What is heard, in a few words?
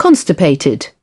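"constipated", British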